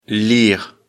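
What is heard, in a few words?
"lire", French; afrikalan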